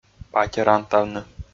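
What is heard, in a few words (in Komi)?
"патерантавны", Komi, male; audio dict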